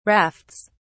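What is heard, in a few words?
"rafts", British English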